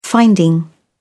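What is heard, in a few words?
"finding", British English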